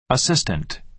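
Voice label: "assistant", American English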